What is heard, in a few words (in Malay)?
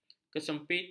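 "kesempitan", Malay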